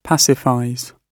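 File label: "pacifies", British English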